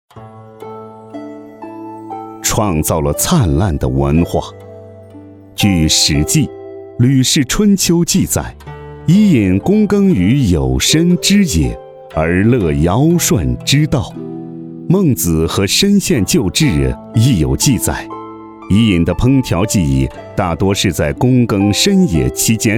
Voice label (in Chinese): 男 宣传片 非遗